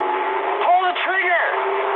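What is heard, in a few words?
English attack position mood high; War Thunder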